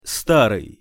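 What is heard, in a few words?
"staryj", Russian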